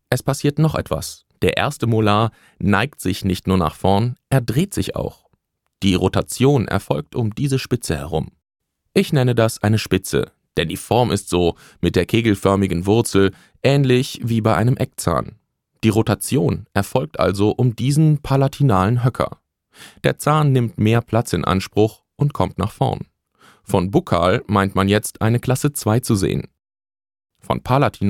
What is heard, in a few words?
e Learning; invisalign